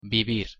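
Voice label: "vivir", Spanish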